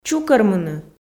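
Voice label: "чукӧрмыны", Komi, female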